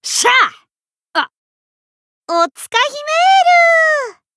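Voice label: win, Japanese; ciel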